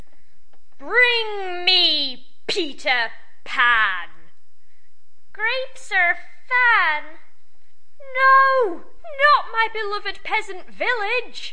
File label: weird collection of drama moments, female; MISC and SPOKEN WORD